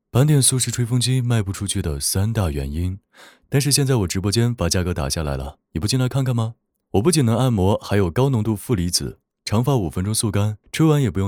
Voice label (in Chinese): 自媒体 抖音种草 气泡音温暖 吹风机; 素士